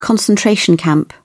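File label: "concentration camp", British English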